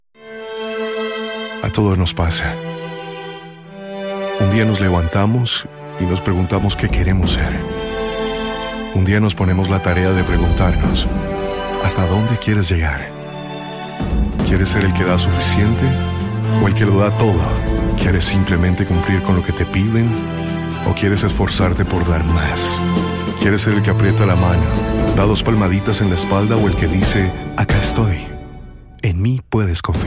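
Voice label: male, narration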